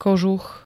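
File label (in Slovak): "kožuch", Slovak; srsť, kožušina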